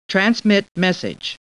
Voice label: Computer Voice; Star Trek; StarTrekLCAR